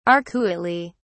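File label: "arcuately", English, British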